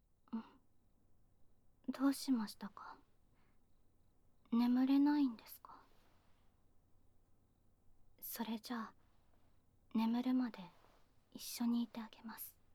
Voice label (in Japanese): 添い寝